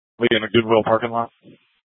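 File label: police dispatch, Scottsdale PD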